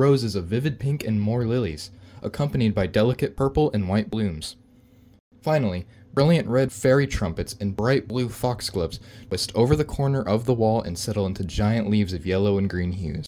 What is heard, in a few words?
Flower Mural Narration